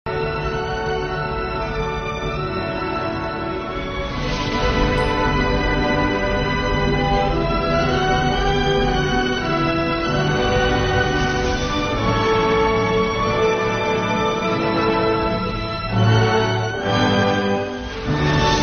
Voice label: 栏目 宣传; 艺术人生暑期特别节目人生课堂宣传

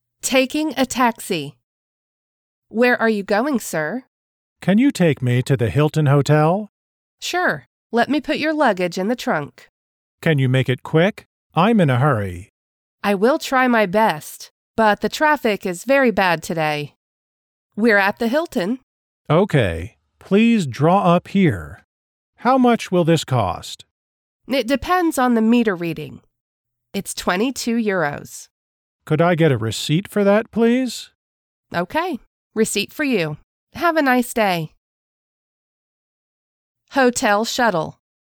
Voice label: 外籍女英 外语 美式英语 课文对话

